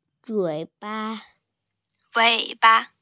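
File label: "wei3ba5", Mandarin Chinese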